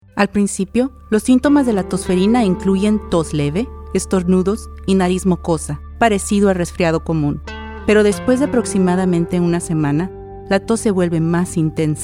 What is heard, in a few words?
Pertussis Radio PSA Spanish